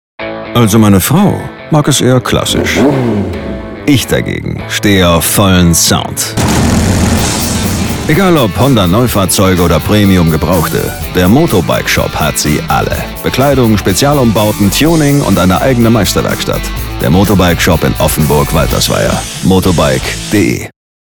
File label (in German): Moto Bike Shop voller Sound